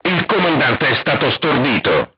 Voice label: voice message commander contused, crew tanks italian